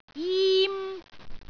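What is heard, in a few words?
"jim1", Cantonese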